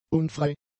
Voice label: "unfrei", German; adjectives